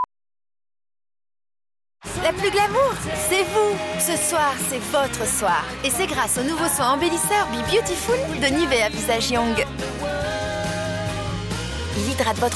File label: teenager; Be beautiful Nivea